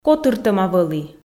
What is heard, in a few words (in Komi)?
"котыртӧма вӧлі", f